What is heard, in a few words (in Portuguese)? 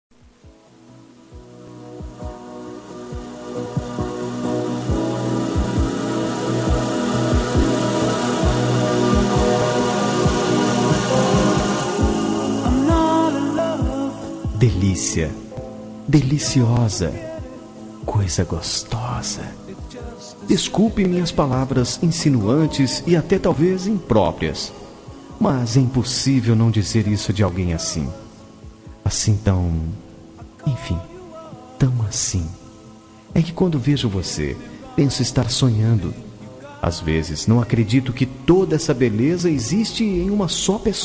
Romântica Sensual